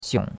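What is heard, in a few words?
"xiong", Chinese, third tone; Cours-de-Chinois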